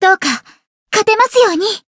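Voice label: Voice encount, Japanese; violet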